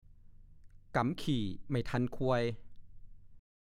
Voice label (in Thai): "ก๋ำขี่ไม่ทันควย", ภาษาโคราช